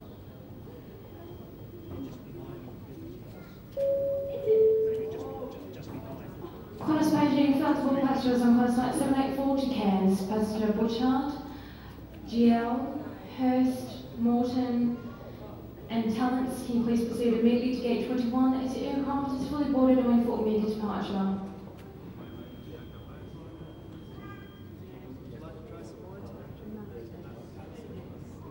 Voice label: Airport Lounge Announcement